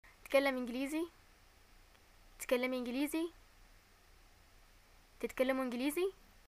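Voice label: do you speak English, Yemeni arabic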